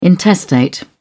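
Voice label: "intestate", British English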